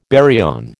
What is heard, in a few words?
"baryon", American